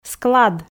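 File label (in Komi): "склад", Komi, female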